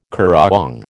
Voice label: "currawong", American

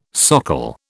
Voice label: "socle", British

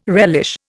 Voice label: "relish", Indian

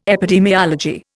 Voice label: "epidemiology", Indian

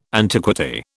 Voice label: "antiquity", British

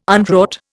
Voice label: "unwrought", Indian

